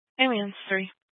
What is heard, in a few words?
Fire Department Dispatch, scanner; Grand Junction